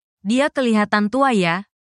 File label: indonesian phrases